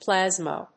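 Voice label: "plasma", English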